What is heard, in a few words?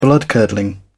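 "bloodcurdling", British English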